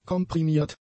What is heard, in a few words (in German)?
"komprimiert", German; adjectives